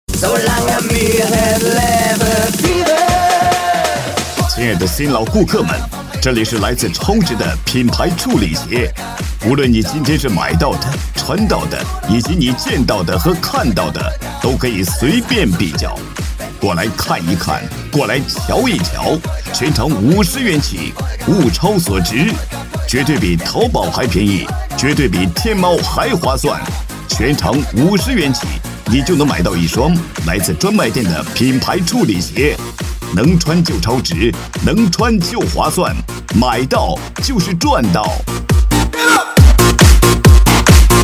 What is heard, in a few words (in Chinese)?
男 促销 鞋